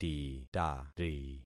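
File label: "titari", concatenated syllables; Affixing experiment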